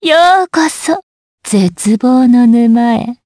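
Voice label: Vox Skill, Japanese; DarkFrey; kingsraid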